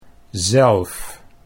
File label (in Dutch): "zelf", Dutch